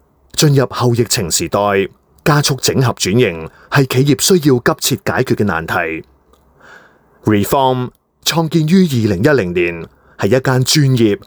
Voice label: Cantonese Male Voice Sample